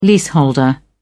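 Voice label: "leaseholder", English, British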